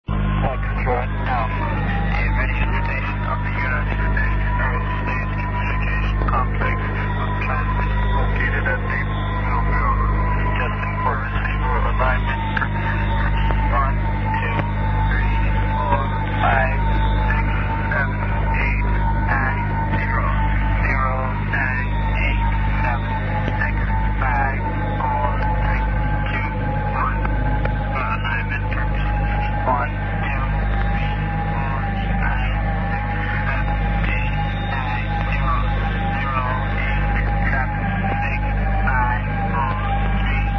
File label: usb